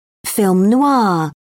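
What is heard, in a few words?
"film noir", British English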